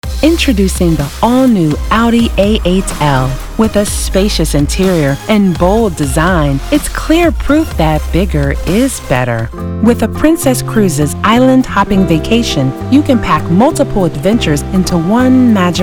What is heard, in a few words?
commercial